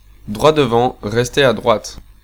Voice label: Keep Right, French, male

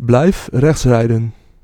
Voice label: Keep Right, Dutch male

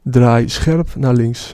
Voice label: Sharp Left, Dutch, male

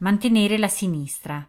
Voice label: Italian, female

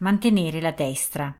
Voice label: Italian, female

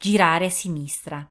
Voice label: Bear Left, Italian female

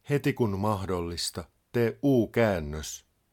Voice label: Try U Turn, Finnish, male; Opas

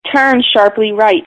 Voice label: "Sharp Right", American English, female

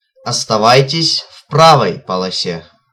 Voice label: Ln Right, Russian, male

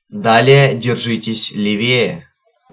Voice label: Ah Keep Left, Russian male

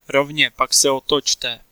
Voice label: Ah U Turn, Czech, male

